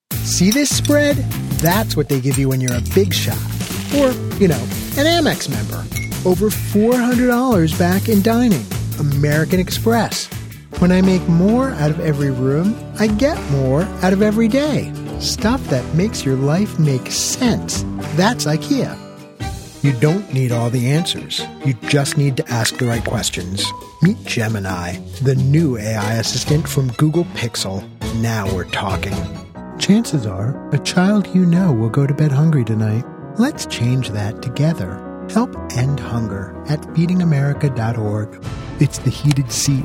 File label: Commercial